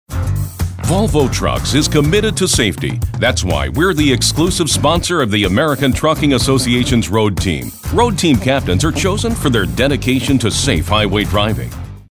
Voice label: upbeat